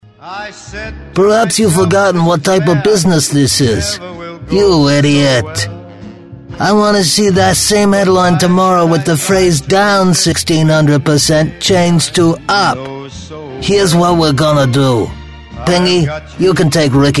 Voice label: ITALIAN AMERICAN mobster accent voiceover